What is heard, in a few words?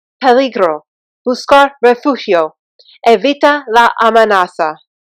Danger Spanish Only